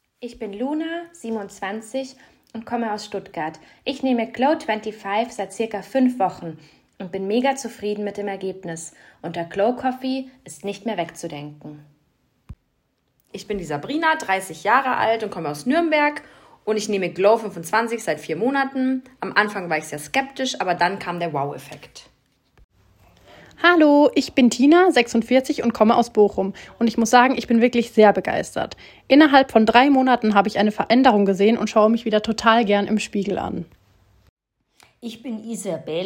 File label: Voice Over Store Pop up; Glow25; Alexa